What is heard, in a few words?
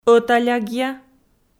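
"ӧталягъя", Komi, female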